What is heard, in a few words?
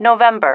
"november", female